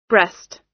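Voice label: "breast", US English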